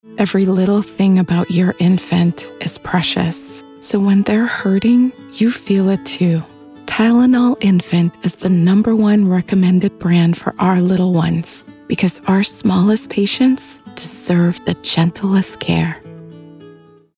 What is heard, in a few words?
Commercial; Tylenol